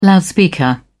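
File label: "loudspeaker", British English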